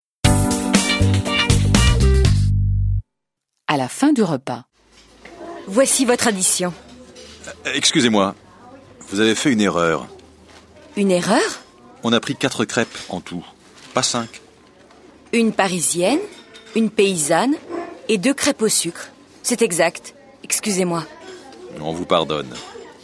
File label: French